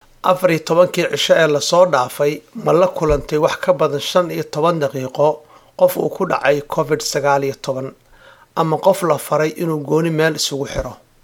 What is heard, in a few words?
Somali; Non-Emergency Work Orders Question 2